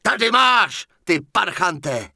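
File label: Human Male Standard NPC Pissed, Czech; wowpreklad; czwow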